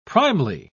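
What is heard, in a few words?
"primely", British English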